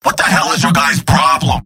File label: scout jeers; mvm